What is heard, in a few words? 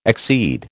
"exceed", American